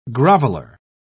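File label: "groveler", British English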